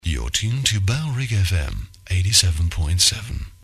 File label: low male, no bed; you're tuned to bfm 87.7; bailrigg fm